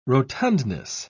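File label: "rotundness", British English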